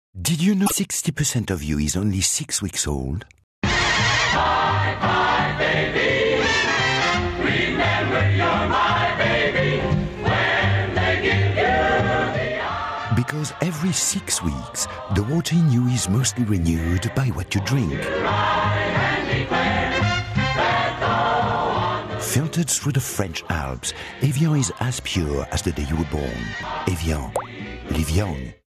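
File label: French English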